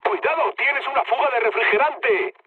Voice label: damaged water, mood low, speech; War Thunder